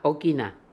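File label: "Okina", pronunciations; Fourth Way; Beelzebub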